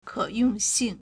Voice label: "ke3yong4xing4", Chinese